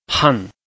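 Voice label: "han", Chinese, tone 4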